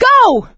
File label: emphatic go, survivor voice; Left 4 Dead 2; producer